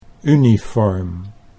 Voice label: "uniform", Dutch